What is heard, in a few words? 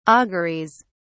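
"auguries", British English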